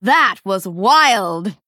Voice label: Female Dryad WOW